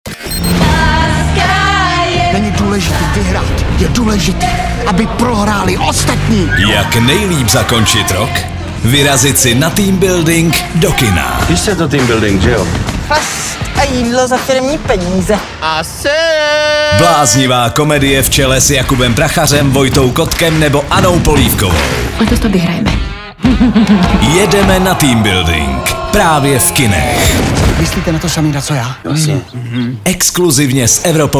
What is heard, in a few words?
promo; evropa2; jedeme na tmbldng